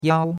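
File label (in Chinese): "yao1" Chinese; Cours de Chinois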